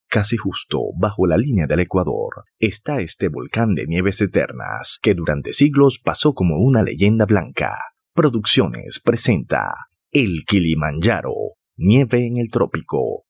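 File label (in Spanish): Documental Kilimanjaro off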